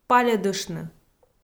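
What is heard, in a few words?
"пальӧдыштны", Komi, female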